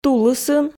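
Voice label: "тулысын", Komi, female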